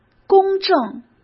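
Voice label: "公证", Mandarin Chinese; hsk; chinese-flashcards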